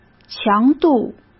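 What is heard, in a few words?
"强度", Mandarin Chinese; chinese flashcards; hsk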